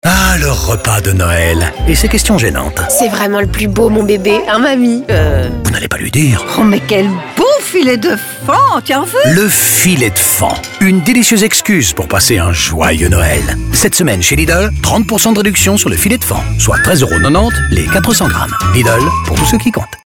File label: radio promo; Faon